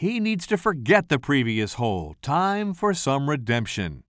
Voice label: commentator